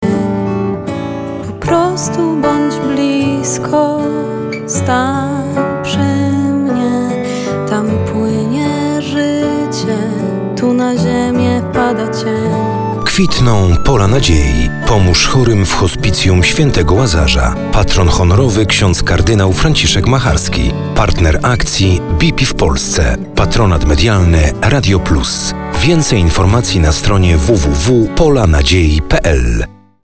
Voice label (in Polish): spot radiowy